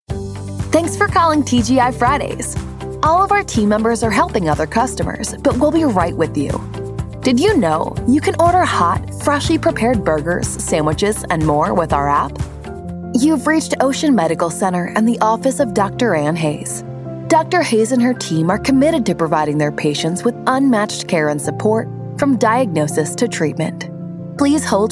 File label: English Voice Over